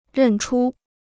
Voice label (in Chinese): "认出", Chinese